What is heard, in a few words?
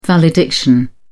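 "valediction", British English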